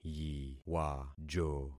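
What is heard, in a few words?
"yiwajo", concatenated syllables; Affixing experiment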